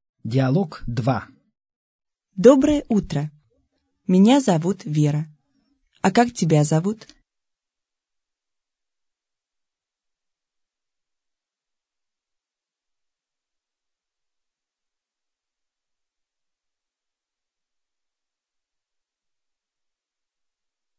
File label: dialog, russian